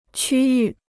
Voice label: "区域", Chinese